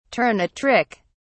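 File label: "turn a trick", British English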